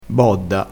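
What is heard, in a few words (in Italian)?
"bodda", vocabolario dialettale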